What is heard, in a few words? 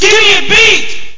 GIMI BEAT, VOCALS